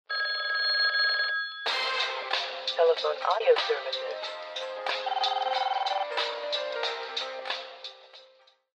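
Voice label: telephone narration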